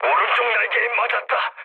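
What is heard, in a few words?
Korean right wing mood low; War Thunder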